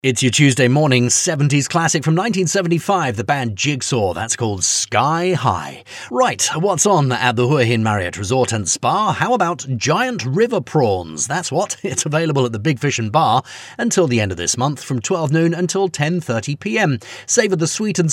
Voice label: Radio Voicetrack

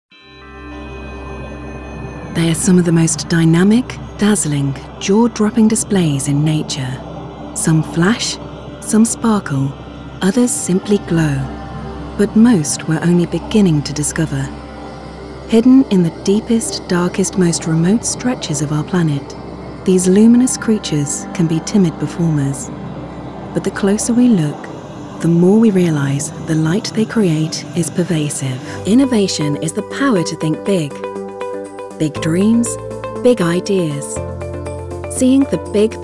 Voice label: Narrative

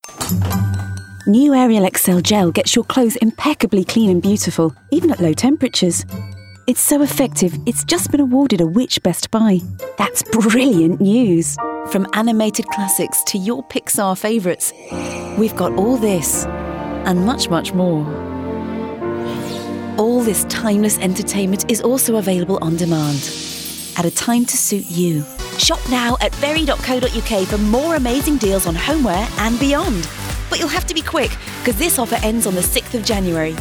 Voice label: commercial

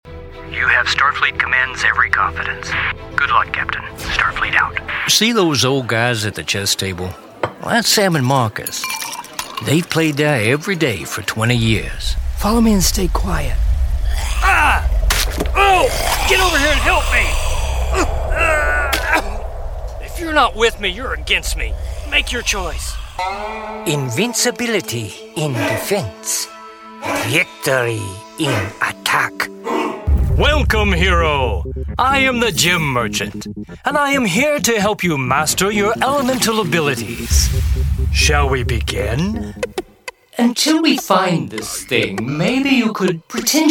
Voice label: Character

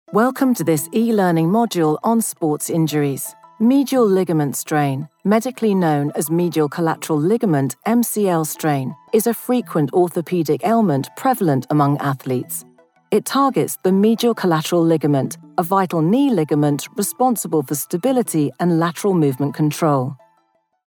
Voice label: Narration

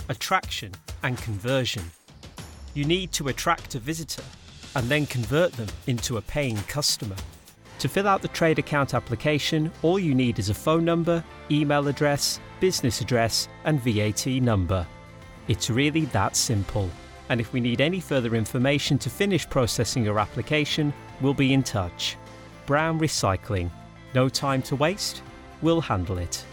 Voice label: Explainer video

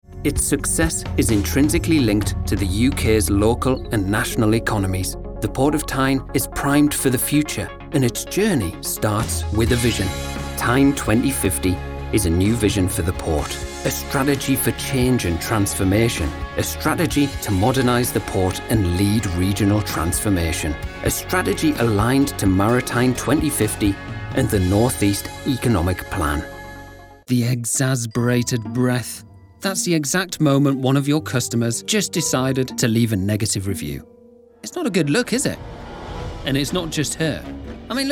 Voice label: Corporate Video

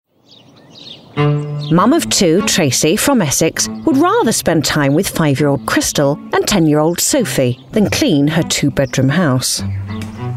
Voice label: Voice Over DOCUMENTARY Voice reel

